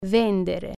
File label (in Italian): "sell", Italian